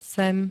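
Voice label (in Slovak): "sem", Slovak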